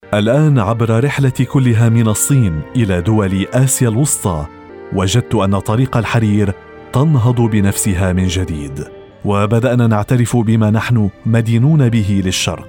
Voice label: arabic tv shows

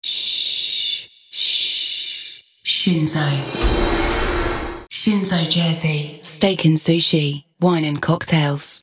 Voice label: english british promos; full time voice actor based out of her personal recording studio

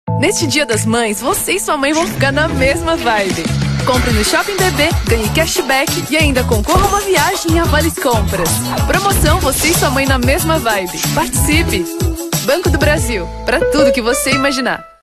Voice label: portuguese brazilian promos, voice actor

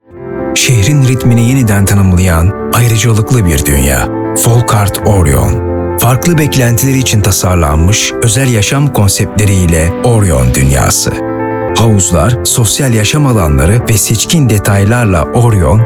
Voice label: Turkish commercial

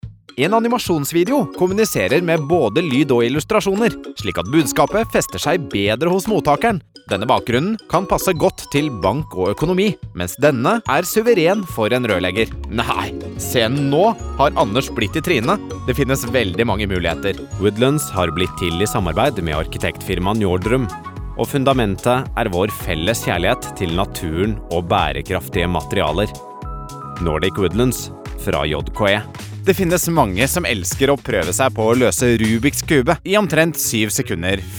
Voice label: norwegian corporate videos

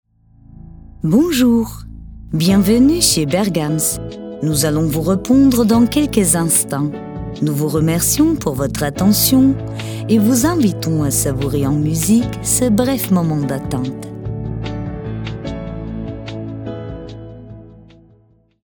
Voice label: french IVR

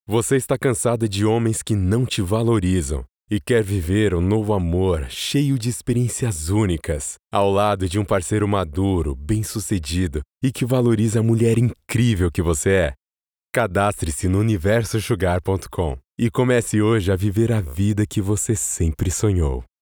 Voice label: Portuguese Brazilian narration

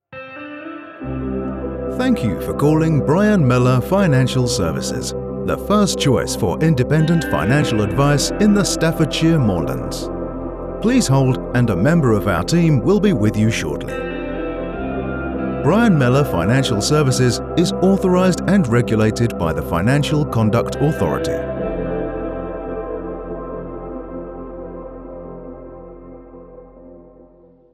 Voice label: English British IVR

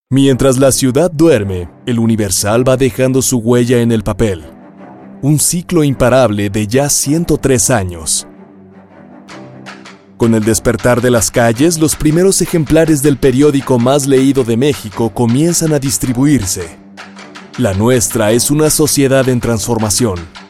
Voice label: spanish mexican corporate videos

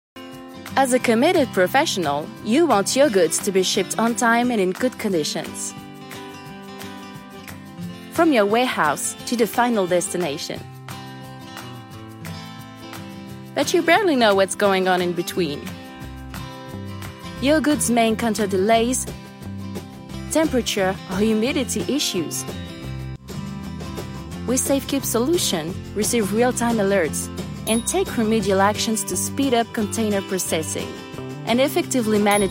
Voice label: english corporate videos, french voice actors; dubladora profissional francesa; Vídeos Corporativos

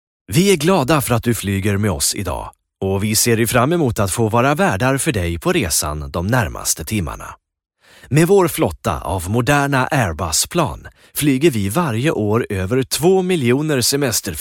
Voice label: swedish corporate videos

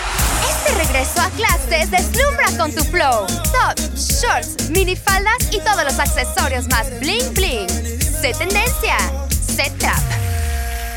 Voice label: spanish latin american commercial; Spanisch (Lateinamerika); Mexiko

